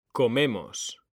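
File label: "COMEMOS", Spanish; mekanta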